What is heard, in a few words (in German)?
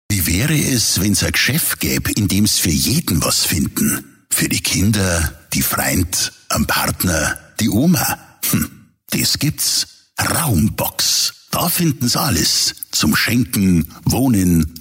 Dialekt Bayerisch Freundlich